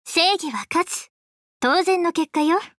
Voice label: Voice victory